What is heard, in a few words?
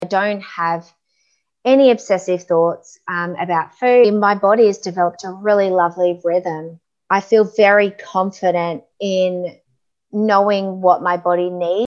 audio testimonial